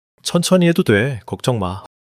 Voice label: joy

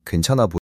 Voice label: shy

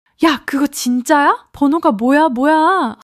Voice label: excitement

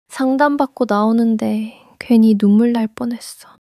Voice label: sadness

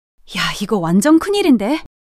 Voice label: fluster

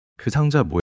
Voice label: neutral

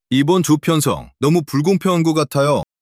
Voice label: anger

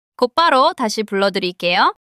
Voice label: neutral

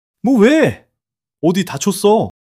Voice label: fluster

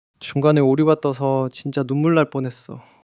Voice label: sadness

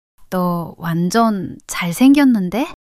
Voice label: shy

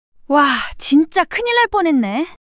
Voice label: fluster

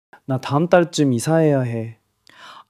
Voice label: sadness

